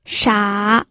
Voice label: "sha3", pinyin